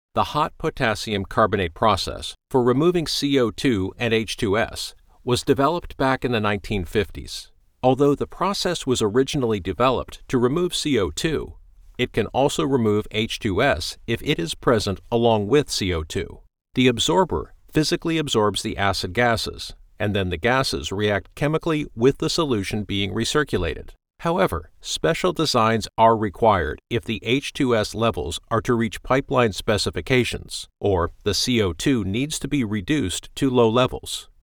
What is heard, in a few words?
eLearning Technical Authority